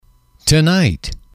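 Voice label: "tonight", English, male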